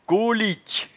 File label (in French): "colitche", patois jura